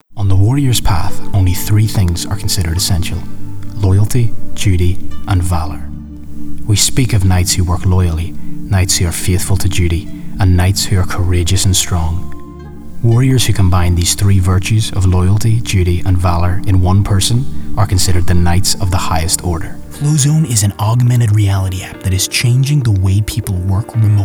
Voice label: Narration; VO Demo